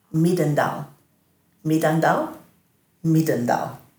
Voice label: "midandhal", Dhurga